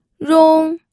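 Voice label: "rong", Mandarin Chinese, first tone; pinyin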